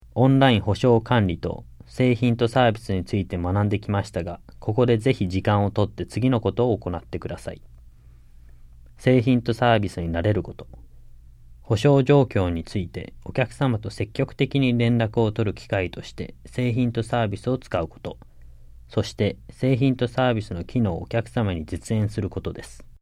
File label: JAPANESE; delltranslations